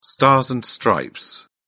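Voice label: "stars and stripes", British English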